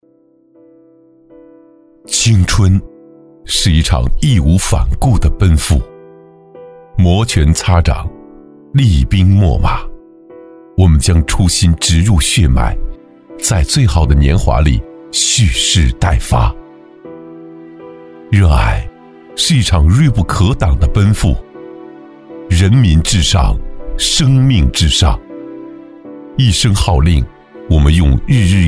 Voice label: 男 征兵广告 走心舒缓; 男B28-大气舒缓 低沉走心

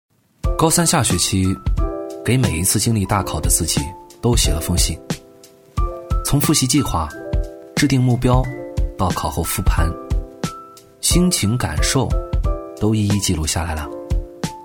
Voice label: 男 素人 高三男生; 素人自然

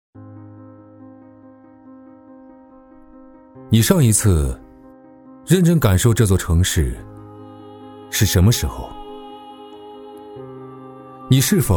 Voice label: 男 走心旁白 素人感 走心; 中英双语 年轻稳重; 中环万象城

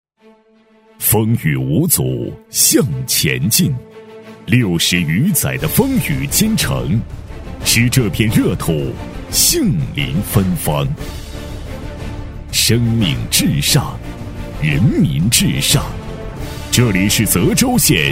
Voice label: 男 医院宣传 大气有力度; 泽州县人民医院; 喊麦激情

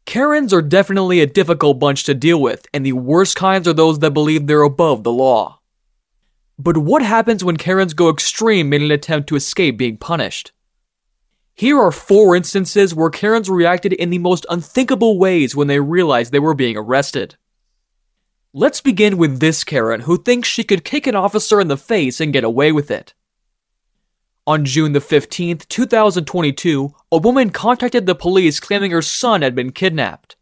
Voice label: 美式男声

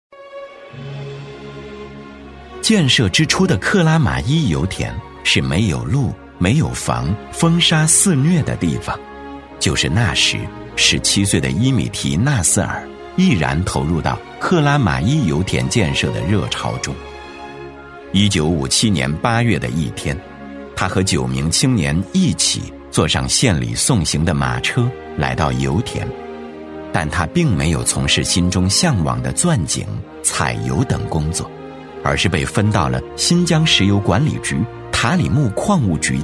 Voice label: 男 人物专题片 抒情讲解; 质感磁性

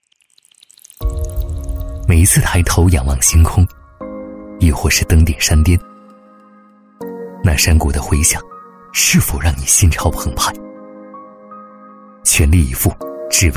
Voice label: 男 专题配音 磁性轻松; 鑫华奇迹; 年轻质感; 质感磁性

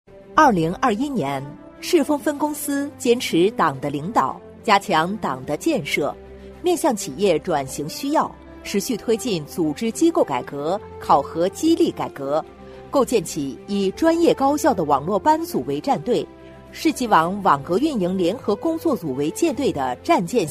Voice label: 女 党建专题片 大气厚重; 赤峰; 大气浑厚

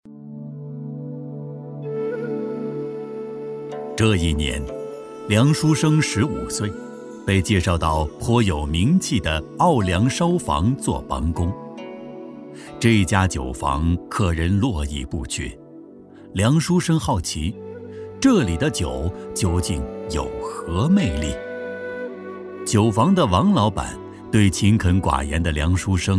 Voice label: 男 记录讲述 文化自然讲述感 变声老年人; 透亮故事感; 质感磁性; 奥梁烧坊

